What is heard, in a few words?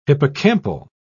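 "hippocampal", British English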